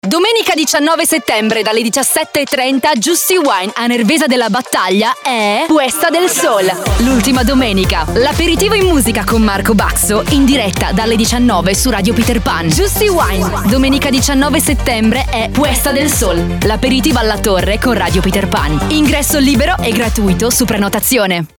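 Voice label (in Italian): brillante, demo voci pubblicita radiofonica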